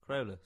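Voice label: "Crowlas", English; place-names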